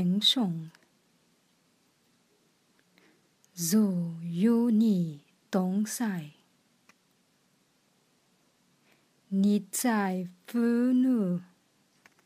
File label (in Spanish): ave maria chino mandarim lento